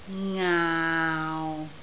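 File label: "ngaau6" Cantonese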